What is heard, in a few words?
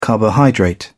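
"carbohydrate", English, British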